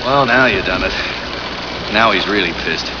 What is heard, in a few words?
pissed